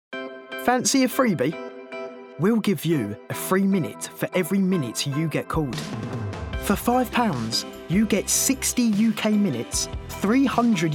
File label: commercial